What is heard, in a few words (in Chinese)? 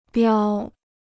"标", Chinese